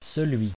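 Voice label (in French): "celui" French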